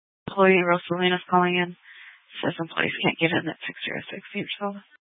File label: Phx PD Dispatch, scanner